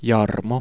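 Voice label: "jarmo", Finnish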